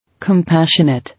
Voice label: "Compassionate", English